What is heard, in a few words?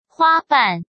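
"花瓣", Mandarin Chinese; chinese-flashcards; hsk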